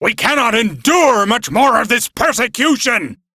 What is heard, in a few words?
Crew Ship Low, Male, en; Warframe; Railjack Crew; Arbiters Hexis